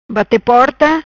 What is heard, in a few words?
"Bate Porta", Macanese; bater a porta; to slam a door